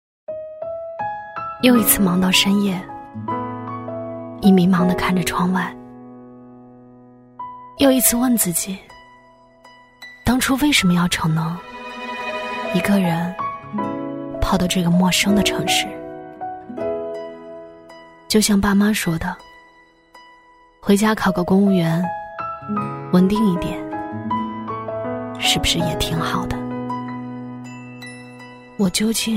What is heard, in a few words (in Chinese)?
女-走心旁白-孤独伤感; 女B10-走心旁白-孤独伤感